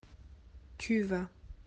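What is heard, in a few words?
"aller", French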